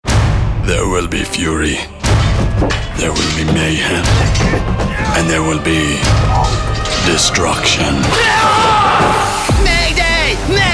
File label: Animation